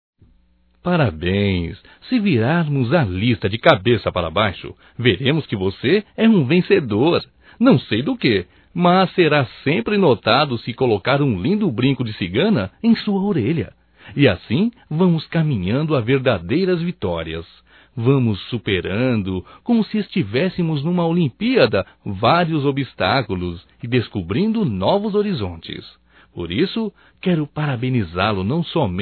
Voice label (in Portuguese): Gozação, masc; AMIGO; Amizades; Telemensagens 2017